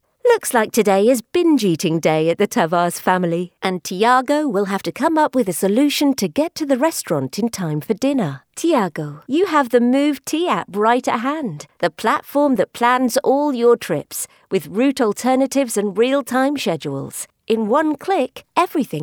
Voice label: english female